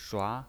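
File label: "shua", Mandarin Chinese pinyin, second tone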